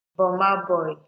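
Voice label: "boma boy", Nigerian Pidgin, female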